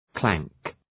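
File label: "clank", English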